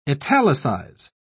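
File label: "italicise", British English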